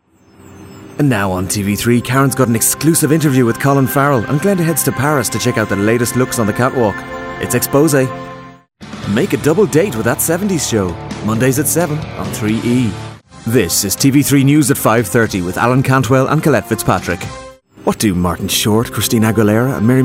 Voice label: Continuity music